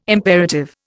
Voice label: "imperative", Indian